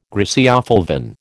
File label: "griseofulvin", American